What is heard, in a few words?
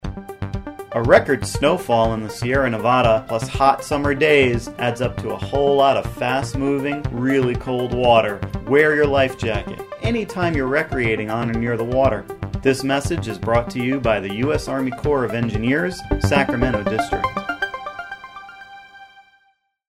Water Safety PSA Cold Water; Water Safety PSA 2011 Cold Water